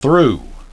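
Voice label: "through", English pronunciation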